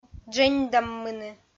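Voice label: "дженьдаммыны", Komi, female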